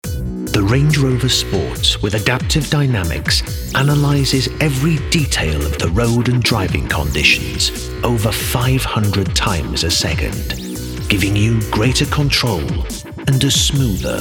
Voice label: ad; Bike Sales Commercial